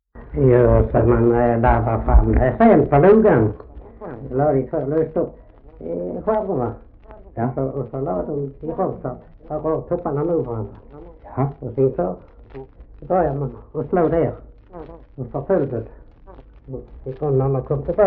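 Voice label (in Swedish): Oderljunga Skåne, Svenska sagor dialekter; Folkminnen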